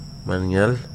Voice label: "green", Wahgi; lae unitech